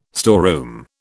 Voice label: "storeroom", British

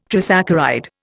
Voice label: "trisaccharide", Indian

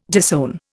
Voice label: "disown", Indian

